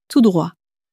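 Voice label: "tout droit", French, female; flashcards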